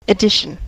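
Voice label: "addition", American English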